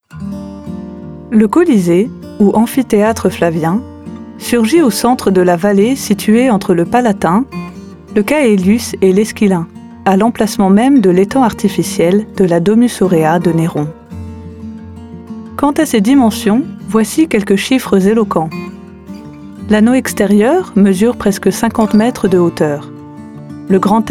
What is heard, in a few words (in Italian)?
madrelingua francese